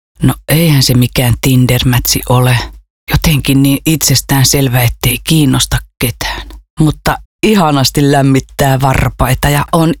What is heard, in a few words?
RADIO female